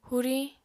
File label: "huriñ", Apatani